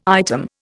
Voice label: "item", Indian